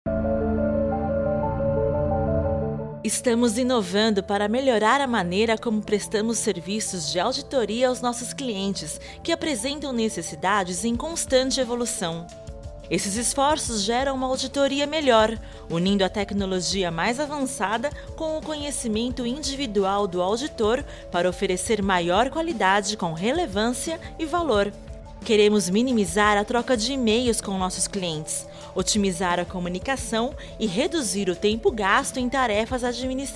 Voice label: Voz Treinamento

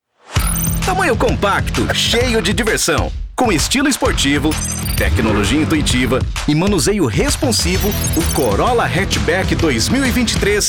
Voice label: Voz Varejo; Corola

